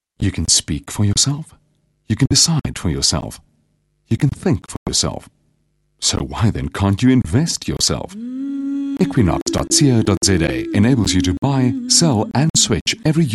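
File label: South African English, Commercial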